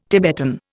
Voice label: "Tibetan", Indian